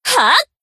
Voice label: Battle Shout; Aru; 蔚蓝档案语音

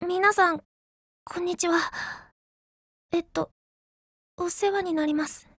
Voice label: FORMATION, JP; CZ100

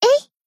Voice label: Battle Shout; Akane

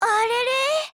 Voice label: LOWMOOD JP; Type82S; 少女前线:82式

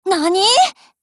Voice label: MOOD JP

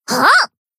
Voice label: Battle Shout; Izumi

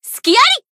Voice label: Battle Shout; Pina

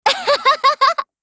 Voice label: MOOD JP

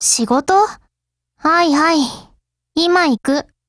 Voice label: HELLO JP; 80type

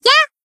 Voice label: Battle Shout; Ibuki

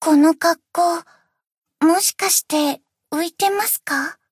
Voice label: Monolog; BA; Chinatsu Hotspring Cafe

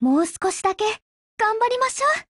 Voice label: PHRASE JP

